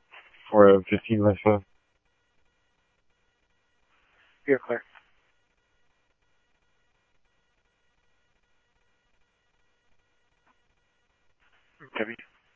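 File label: Mesa Police Department, scanner audio trunk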